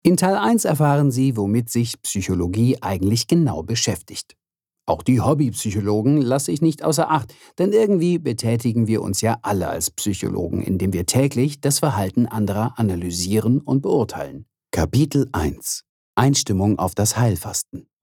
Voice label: Voice Over Demo